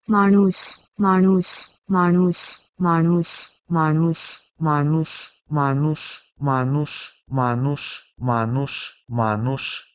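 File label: Proto-Indo-European manus to Romani manus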